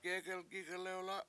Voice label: many sitting around, Washo; gEgelgIg1lewla7

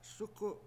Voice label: "sUku7", washo

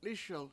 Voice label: lISel bring, Washo

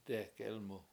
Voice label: "dE7ek Elmu" under rock, Washo

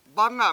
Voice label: "bAnga7", Washo; Table

